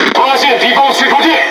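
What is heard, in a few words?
Speech Detection Enemy Destroyer, Chinese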